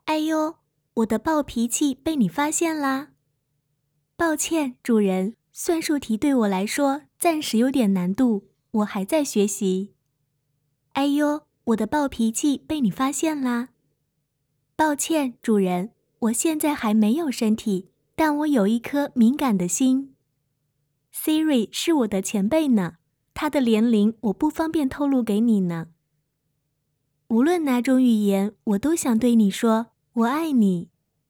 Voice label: 女发音人模仿

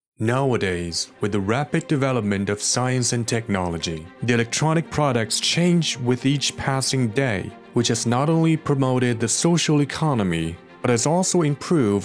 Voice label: 样音激情浑厚

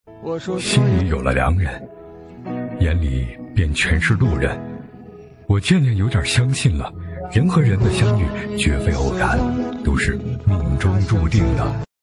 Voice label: 干练播报

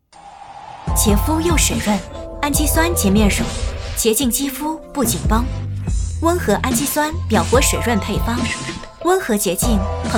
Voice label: 洗面奶商配; 洗面奶广告商配; 时尚磁性; 甜美女声

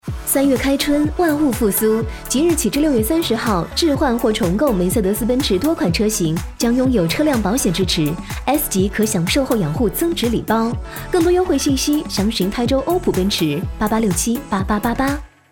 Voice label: 清新; 欧普奔驰

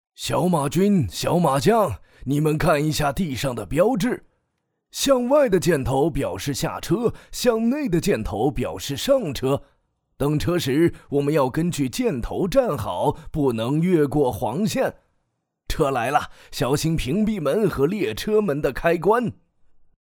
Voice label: 配音 男; 小码剧场艾伦博士

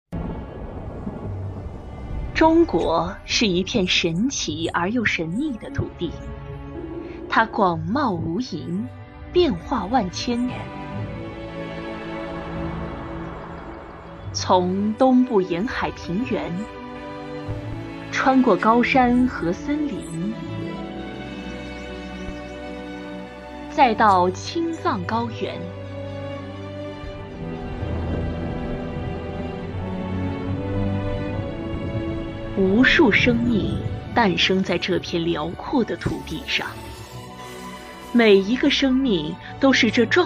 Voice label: 专题宣传片

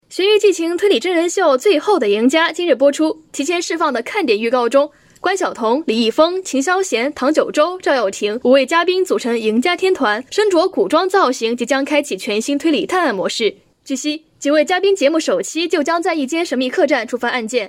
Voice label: 娱乐新闻

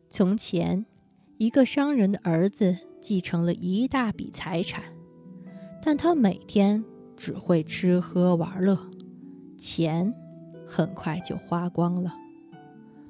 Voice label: 少儿读物样音

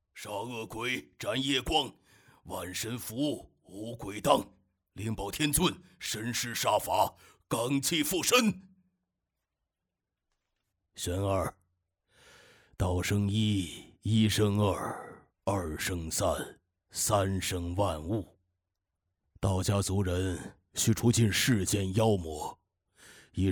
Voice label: 老年男样音

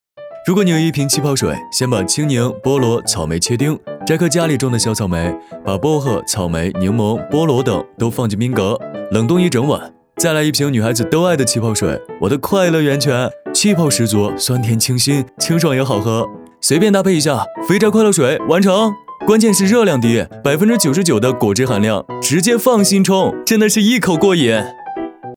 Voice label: 阳光元气; 气泡水

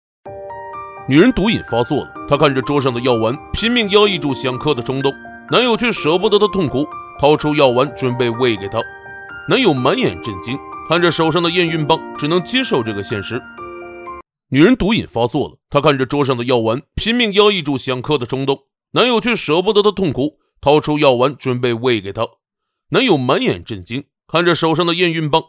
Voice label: 电影解说

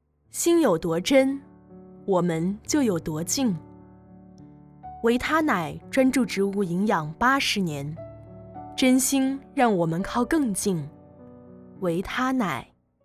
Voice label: 广告样音

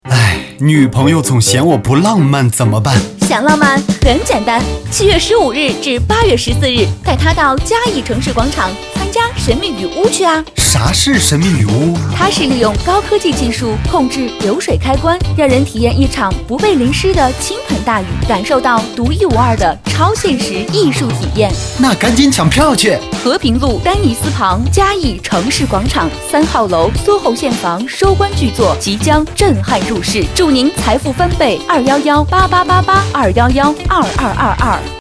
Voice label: 广告样音

